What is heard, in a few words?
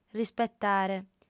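"rispetare", female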